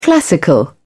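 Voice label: "classical", English, uk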